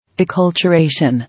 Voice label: "Acculturation", English